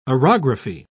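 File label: "orography", British English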